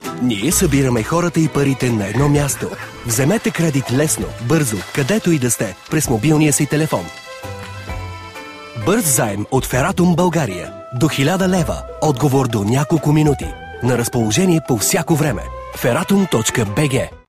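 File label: Bulgarian light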